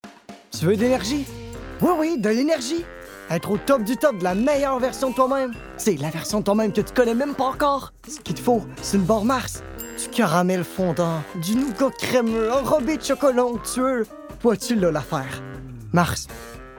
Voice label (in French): PUBLICITE FR